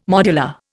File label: "modular", Indian